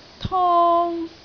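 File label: "tong1", Cantonese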